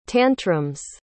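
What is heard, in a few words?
"tantrums", English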